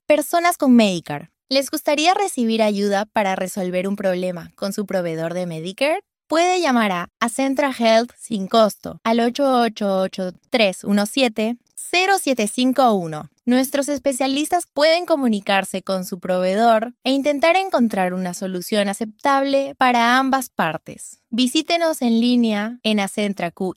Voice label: psa spanish